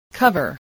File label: "cover", voice spelling